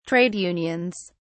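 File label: "Trade unions", British English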